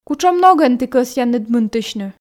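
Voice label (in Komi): кутшӧм ногӧн ті кӧсъянныд мынтысьны, Komi, female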